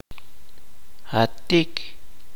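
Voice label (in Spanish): "aquí", chuj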